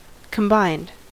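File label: "combined", US English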